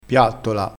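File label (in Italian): "piattola", dialetto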